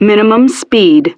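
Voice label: "Minimum Speed", English; Betty; DCS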